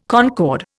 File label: "concord", Indian